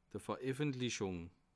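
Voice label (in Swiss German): "vereffentlichung", Bas Rhin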